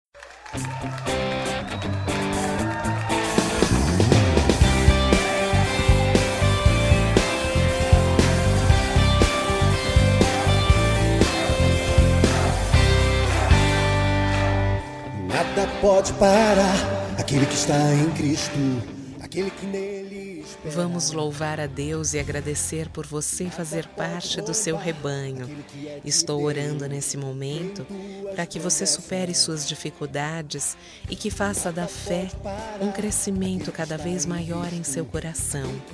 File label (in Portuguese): Otimismo Cunhado Evangelico Feminino; Temas Evangelicos